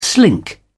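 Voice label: "slink", British English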